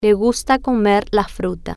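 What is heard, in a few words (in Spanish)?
Le Gusta Comer Fruta, spanish, female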